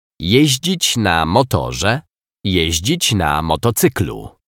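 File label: "jezdzic na motorze jezdzic na motocyklu", Polish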